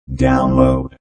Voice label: Robot Voice "Download"; Robot Sound Effects; Lynne Music

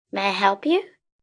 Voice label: May I Help You, Etiquette, 1 girl, 10 yrs.; Talking Sound Effects; Sound Effects:Voice; VoiceBox

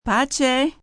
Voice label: "Pace", Goodbyes, female, foreign, Italian; 'Pace' (peace); human voice speaking; Greetings and Goodbye; VoiceBox

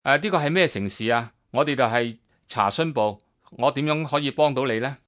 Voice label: Information, Telephone operator, Cantonese, male; Telephone Voice; Voice Box

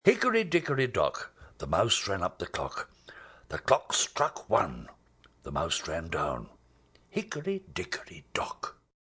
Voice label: Rhyme, Nursery rhyme, speaking, male; Talking Sound Effects; Hicko Dock

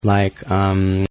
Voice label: Guy Says Like Umm; Talking; Voice

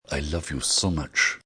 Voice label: I Love You So Much, male; Talking Sound Effects; Endearment

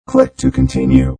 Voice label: Robot Voice "Click To Continue"

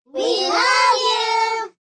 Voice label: We Love You, Group; Talking Sound Effects

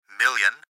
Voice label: Gps Voice, Male; Recorded Voice; Blastwave; Technology

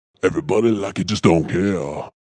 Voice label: Vocal Deep, Funk vocal loop sample.

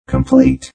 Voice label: Robot Voice "Complete"; Robot Sound Effects; Lynne Music

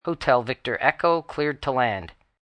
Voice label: Tower Landing, Air traffic controller, male; Pa Announcement; VoiceBox

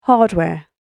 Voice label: "Hardware", British Female Voice; Recorded Voice; Andrew Fleming

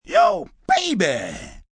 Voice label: Yo Baby Crackin, Greetings, male

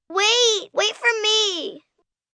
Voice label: Wait For Me, 1 child, 6 yrs; Talking; VoiceBox

